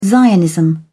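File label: "zionism", British English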